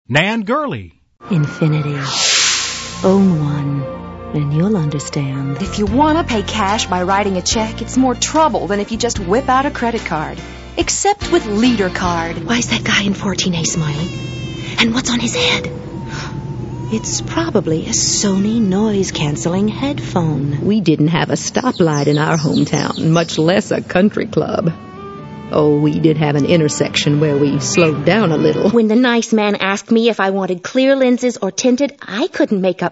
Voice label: female; Spotland Productions